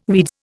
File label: "weeds", Indian